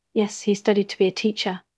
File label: Voice Acting es